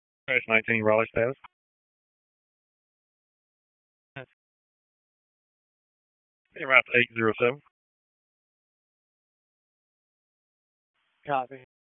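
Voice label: Police Dispatch, p25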